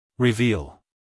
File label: "reveal" us male; everyone-can-use-english